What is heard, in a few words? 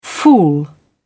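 "fool", British English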